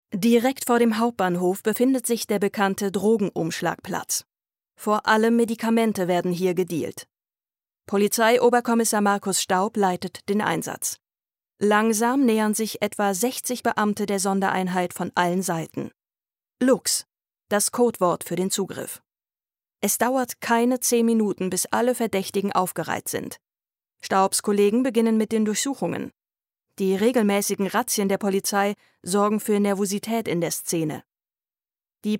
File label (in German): Doku